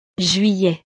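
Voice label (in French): "juillet", French; los meses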